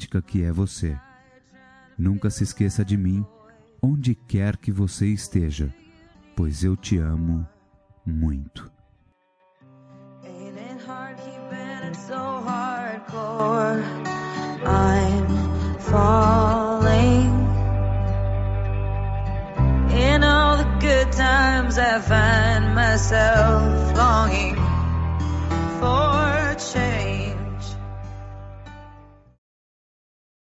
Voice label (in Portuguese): dia dos namorados namorada, Dia dos Namorados – Para Namorada – Voz Masculina